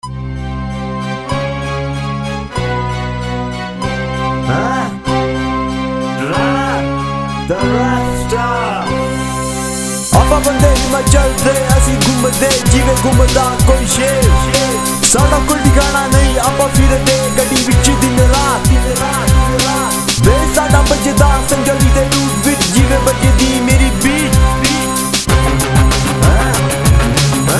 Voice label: Rap; hindi